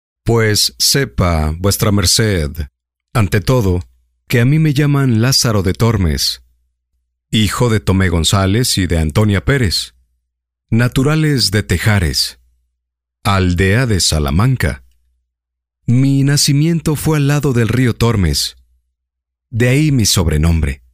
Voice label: locutor mexicano mexican voiceovers audiolibro; locutor mejicano